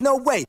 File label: "no way" male; Voice; expletives